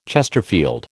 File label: "chesterfield", American